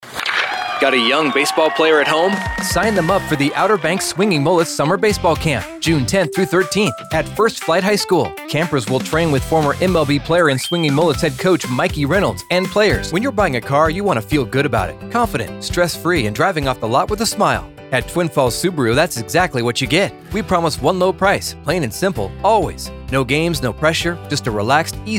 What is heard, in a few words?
Commercial